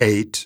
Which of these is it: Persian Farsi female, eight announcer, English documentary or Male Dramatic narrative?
eight announcer